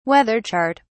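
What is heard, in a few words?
"weather chart", British English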